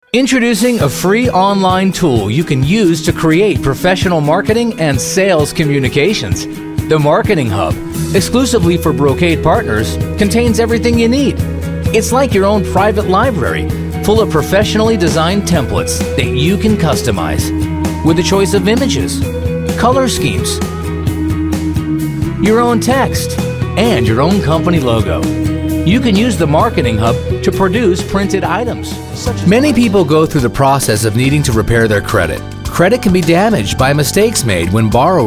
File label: Narrative